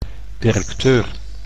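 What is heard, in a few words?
"directeur", Dutch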